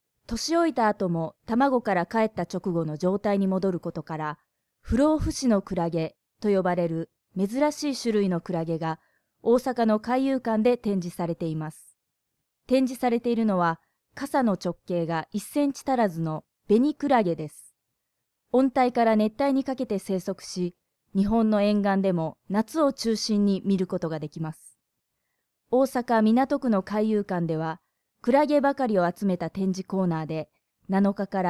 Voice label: news

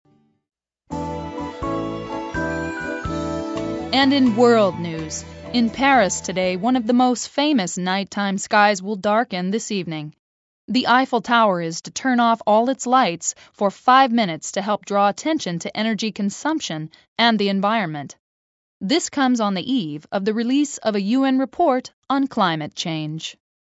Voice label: news

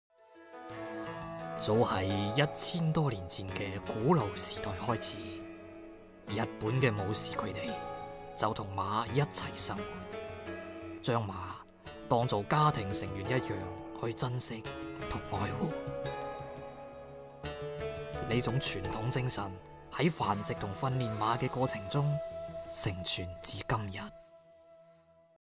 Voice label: cantonese

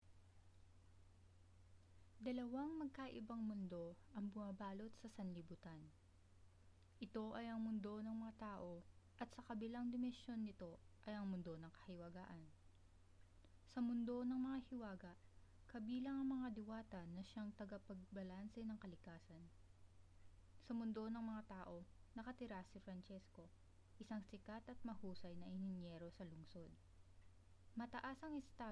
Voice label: story

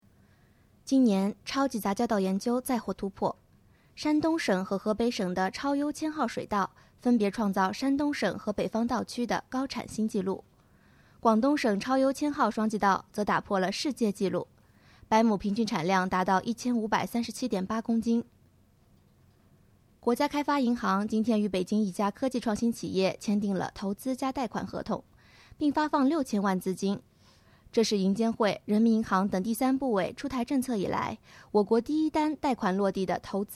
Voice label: news

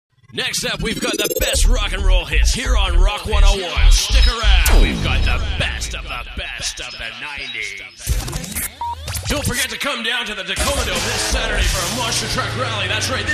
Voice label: dj

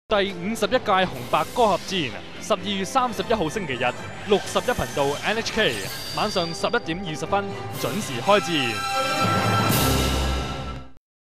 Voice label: TV PR

